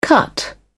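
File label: "cut up", British English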